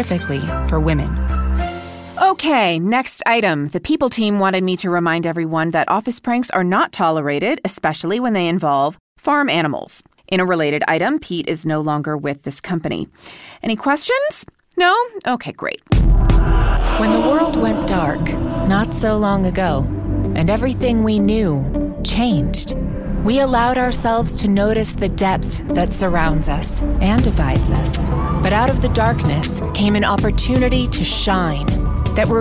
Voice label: narration, female voice over

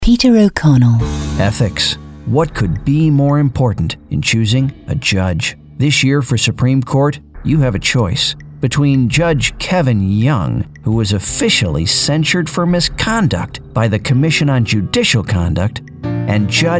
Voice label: Political Commercial; demo

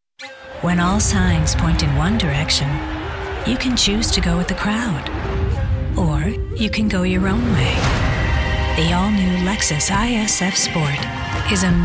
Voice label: Commercial, female voice over

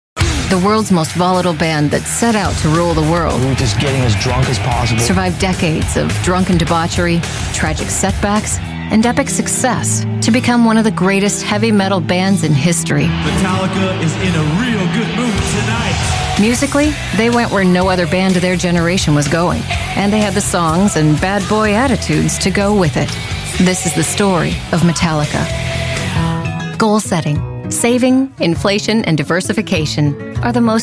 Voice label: Narration, Female Voice Over

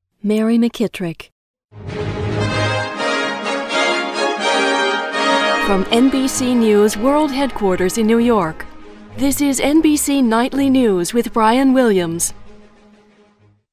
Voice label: News Open; NBC

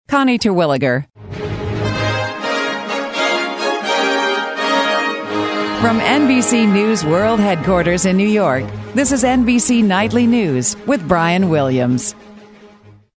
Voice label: Nightly News Open; NBC

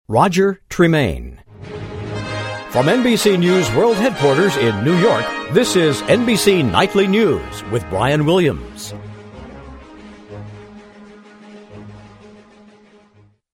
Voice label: Nightly News Open, Auditions; NBC

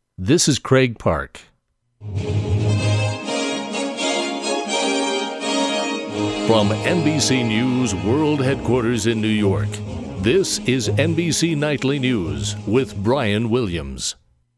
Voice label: Nightly News Open; NBC

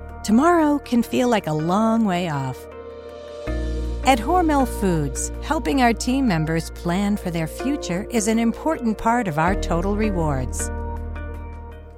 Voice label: Narration, Female Voice Over

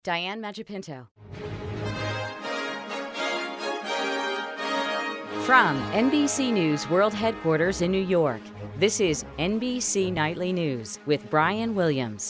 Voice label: Nightly News Open; NBC

